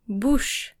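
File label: "bouche", French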